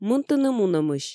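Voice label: "мынтыны мунӧмысь", Komi, female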